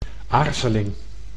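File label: "aarzeling", Dutch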